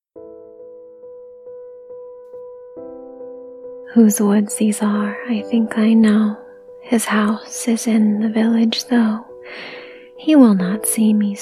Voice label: poetry reading [whispers]; relaxing; F4A; Stopping by Woods on a Snowy Evening by Robert Frost